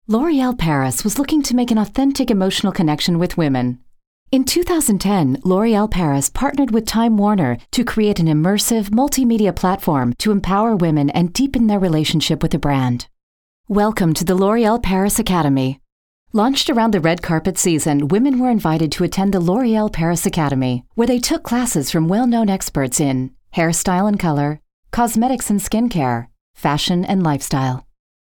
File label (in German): Dynamisch